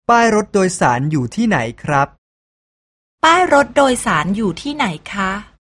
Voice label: Thai